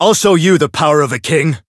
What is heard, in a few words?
Voice variable chant; claude